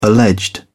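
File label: "alleged", English, British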